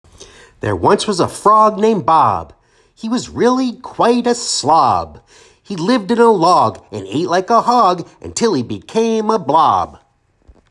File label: Short o Vowel Sound Limerick